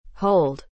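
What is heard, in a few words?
"hold", English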